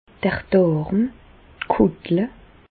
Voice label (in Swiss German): "darm", Bas Rhin; medecine; colon